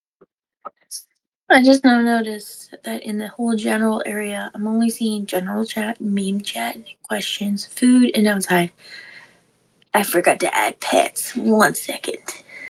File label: voice message; Discord; Outcast Gaming